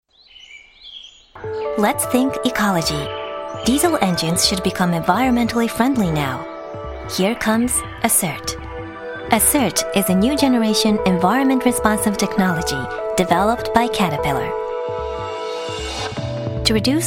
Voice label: english